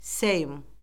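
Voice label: "seym", Azerbaijani; Orfoqrafiya Lüğəti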